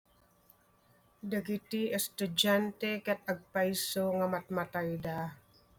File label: Ilocano